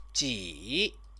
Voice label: "ji", Chinese